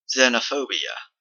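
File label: xenophobia, Canadian English; pronunciations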